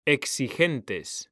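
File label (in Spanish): "exigentes", Spanish; mekanta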